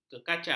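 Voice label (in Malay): "kekacang", Malay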